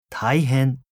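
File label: "taihen", Japanese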